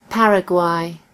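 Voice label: "paraguay", British English; gcompris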